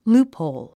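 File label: "loophole", English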